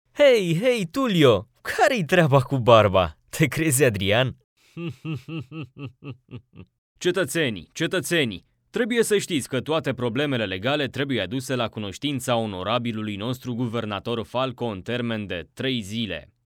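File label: romanian male commercial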